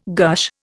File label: "gush", Indian